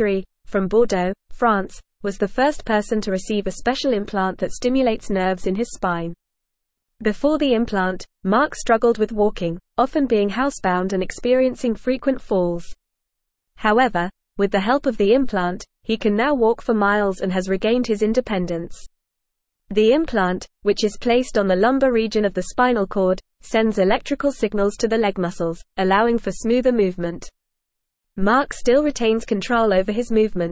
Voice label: English Newsroom Advanced FAST Reading; Implant Restores Ability to Walk for Parkinsons Patients

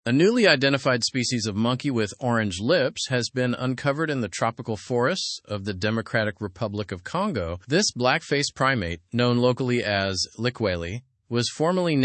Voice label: english through news upper intermediate; discovery of new monkey species with orange lips

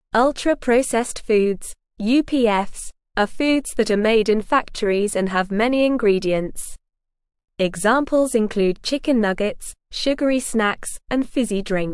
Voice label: English Lower Intermediate NORMAL Reading; Are Ultra Processed Foods Bad for Our Health; English Newsroom

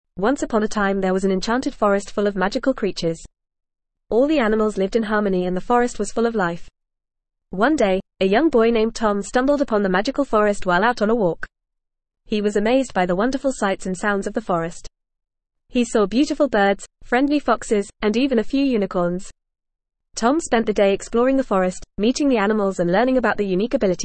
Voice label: ESL Short Stories for Kids FAST reading; The Enchanted Forest

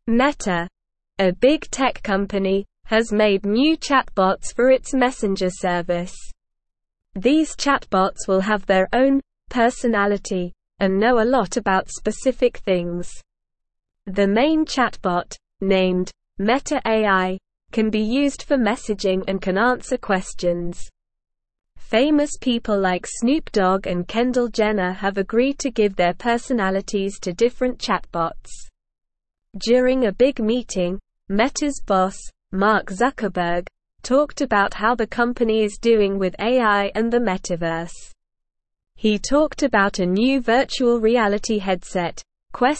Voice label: English Beginner SLOW Reading; Meta Creates New Chatbots with Personalities for Messenger

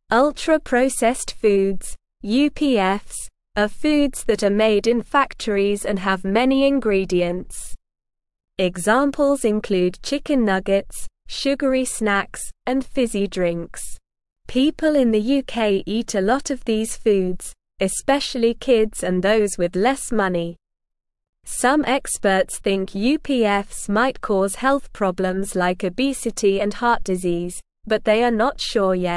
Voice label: English Lower Intermediate SLOW Reading; Are Ultra Processed Foods Bad for Our Health; English Newsroom

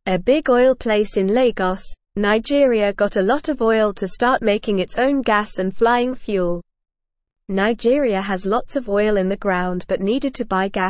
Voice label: English Newsroom Beginner NORMAL Reading; Nigeria Makes Its Own Gas to Save Money

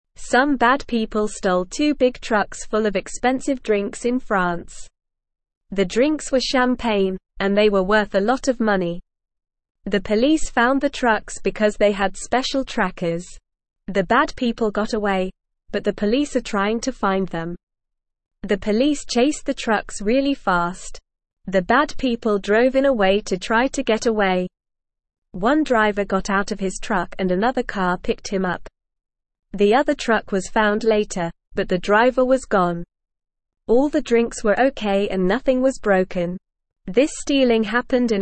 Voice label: English Lower Intermediate NORMAL Reading; Police Catch Thieves and Save Expensive Drinks; English Newsroom

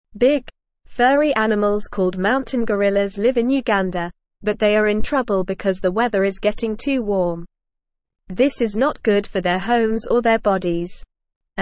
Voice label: English Newsroom Beginner NORMAL Reading; Helping Mountain Gorillas Gladys and Her Important Work

